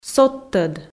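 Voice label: "содтӧд", Komi, female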